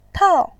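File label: "tao", Mandarin Chinese, fourth tone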